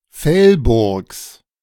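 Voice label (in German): "Velburgs", German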